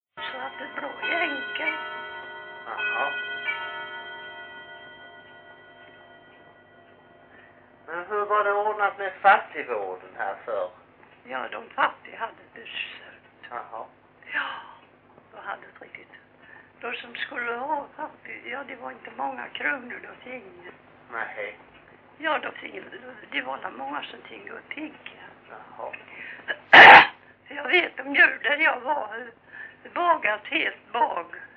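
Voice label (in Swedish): Grimeton Halland, Svenska sagor dialekter; Folkminnen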